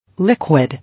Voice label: "Liquid", English

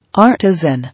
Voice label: "Artisan", English

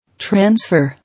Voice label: "Transfer", English

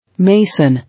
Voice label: "Mason", English

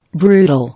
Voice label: "Brutal", English

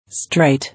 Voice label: "Straight", English

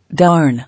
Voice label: "Darn", English

